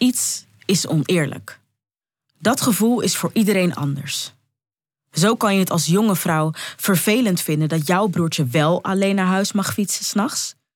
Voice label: feminisme vo

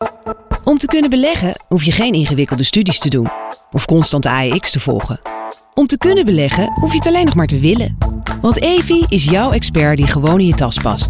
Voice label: commercieel

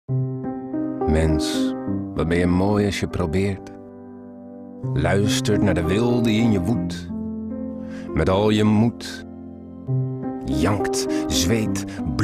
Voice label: Commercieel

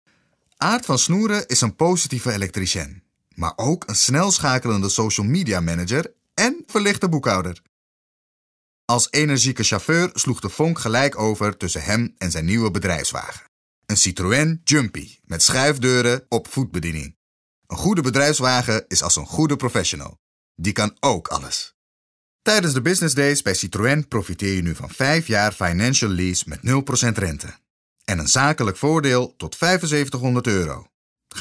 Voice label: Vriendelijk

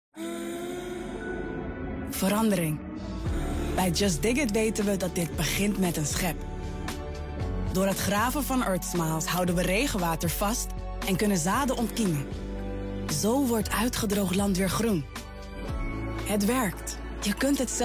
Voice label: VO NL; Justdiggit

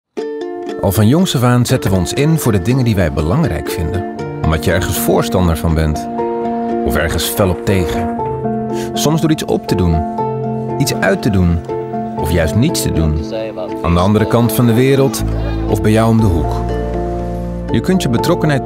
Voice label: Zakelijk

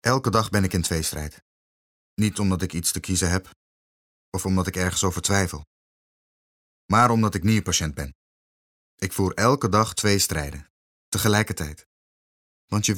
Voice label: Serieus

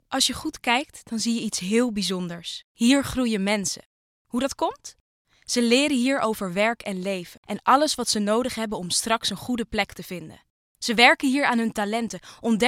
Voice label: zakelijk

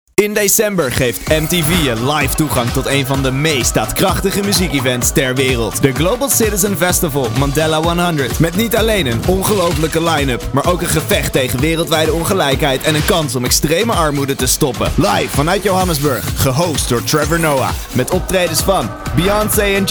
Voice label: Commercieel

